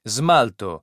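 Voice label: "smalto", Italian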